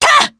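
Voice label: Vox Jump, Japanese; Demia; kingsraid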